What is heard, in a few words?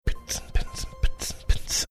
whispering boots and pants boots and pants